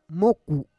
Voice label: "moku", Roon

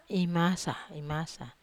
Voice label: "masa", Wamesa

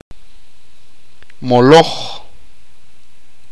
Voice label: "Meeting", achi

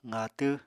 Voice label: "qaatw", Apatani

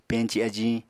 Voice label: "peñci ajiñ", Apatani

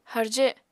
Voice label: "harje", apatani

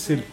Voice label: coconut sprouting, Mokilese

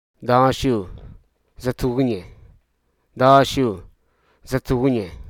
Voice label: "encircle", hruso

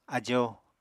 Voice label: "ajo", Apatani

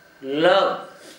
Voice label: "lǝlog", Gta, Dialect: Hill; drown

